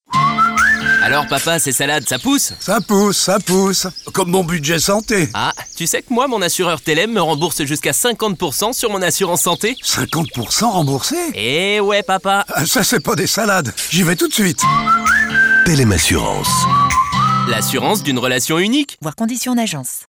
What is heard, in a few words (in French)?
spot radio assurances, demos voix; thelem